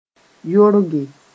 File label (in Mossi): "yorge", moore